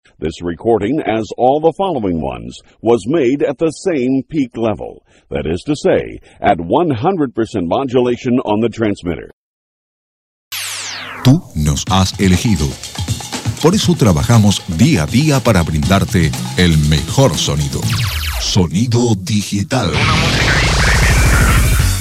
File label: spot; Demo Procesador AudiMax 362 HD